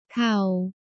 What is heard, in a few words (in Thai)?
"เข่า", Thai